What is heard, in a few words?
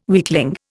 "weakling", Indian